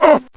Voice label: Dwarf Hurt Sound; Heroes3